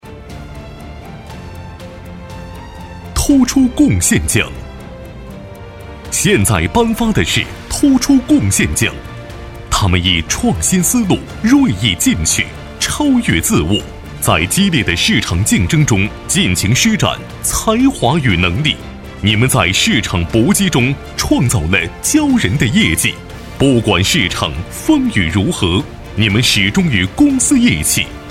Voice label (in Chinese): 专题 颁奖 激情颁奖 激情, 男国